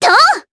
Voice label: Jump, jp; voices; heroes; Rodina; kings raid